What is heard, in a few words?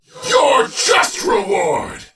Attack, en; Warframe; Battle; Dax; DaxKnight